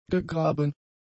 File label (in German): "gegraben", german; adjectives